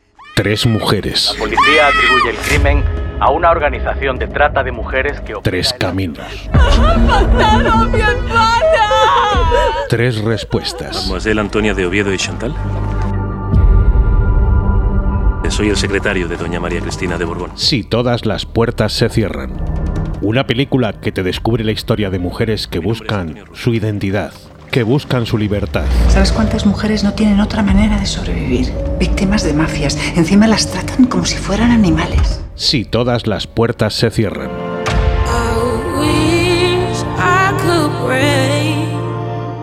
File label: radio